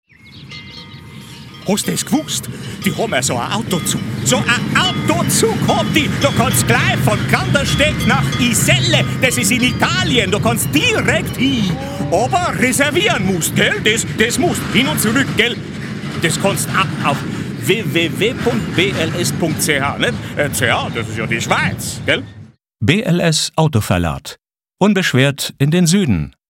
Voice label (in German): bayrisch